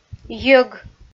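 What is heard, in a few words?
"йӧг", Komi, female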